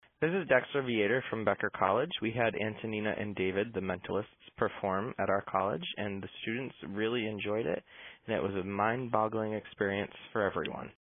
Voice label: Voice Mail